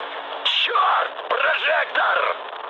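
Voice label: searchlite, mood high, Russian; War Thunder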